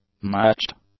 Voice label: "matched", English; adjectives

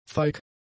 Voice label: "feig", german; adjectives

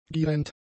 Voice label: "gierend", German; adjectives

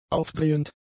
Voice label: "aufdrehend", German; adjectives

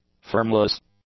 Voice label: "firmless", english; adjectives

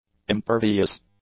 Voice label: "impervious", English; adjectives

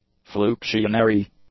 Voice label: "fluxionary", english; adjectives

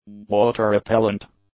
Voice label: "water-repellent", English; adjectives

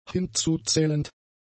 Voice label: "hinzuzählend", German; adjectives

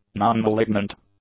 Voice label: "non-malignant", english; adjectives

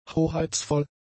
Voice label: "hoheitsvoll", German; adjectives

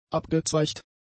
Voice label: "abgezweigt", German; adjectives

